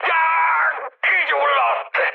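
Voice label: on fire, mood med, Hungarian; War Thunder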